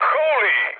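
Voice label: Serbian trick mood med; speech; War Thunder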